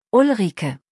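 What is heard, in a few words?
"Ulrike", German; maedchennamen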